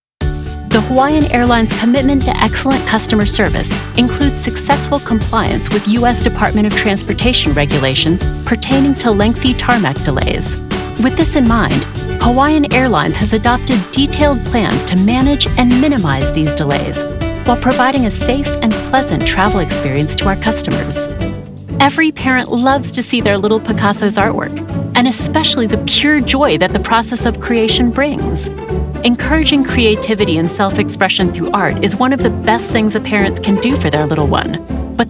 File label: CORPORATE